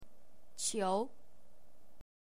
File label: Mandarin Chinese